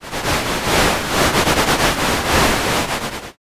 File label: whisper